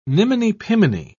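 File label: "niminy-piminy", British English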